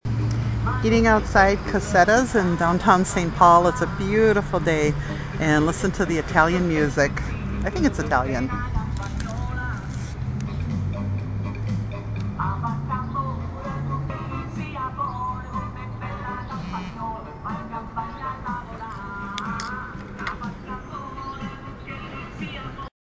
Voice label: italian

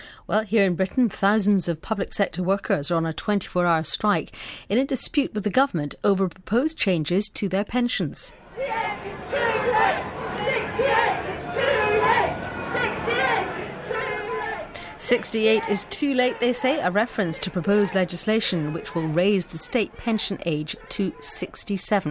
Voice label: police protest vox pops

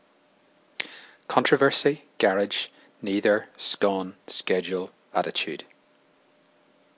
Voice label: armagh male

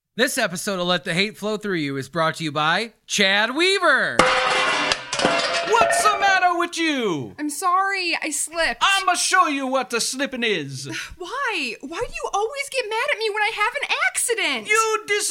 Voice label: commercial

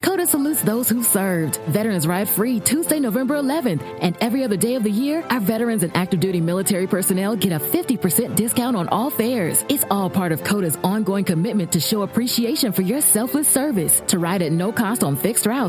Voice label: commercial

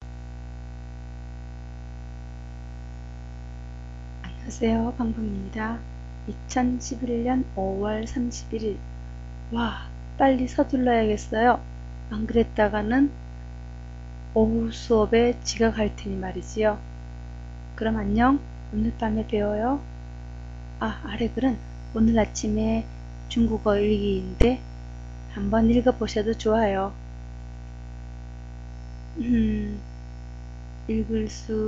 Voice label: korean hurry up

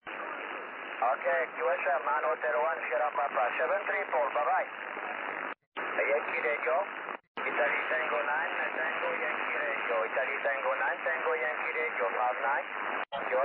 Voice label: 6m ssb

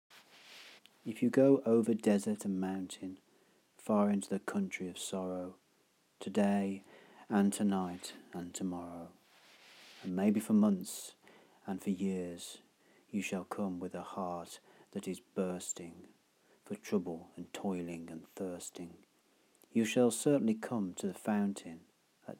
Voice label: late night celtic poetry

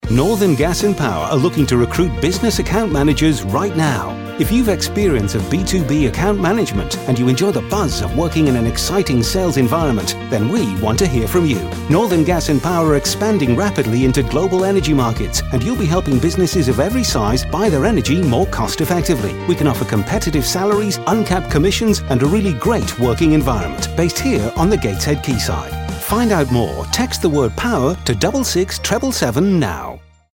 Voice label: radio ad; northern gas power; radio airtime media

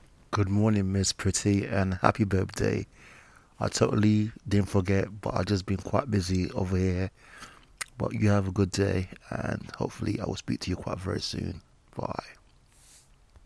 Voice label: voicemail

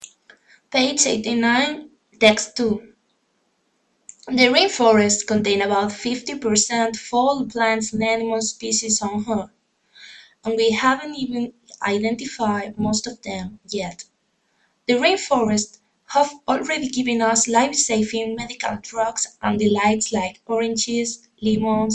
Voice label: english reading aloud

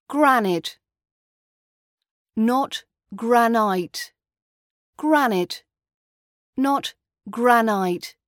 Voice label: how to say granite british accent practice

